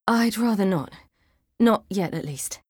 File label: Female; Skyrim Special Edition; Dawnguard Beleval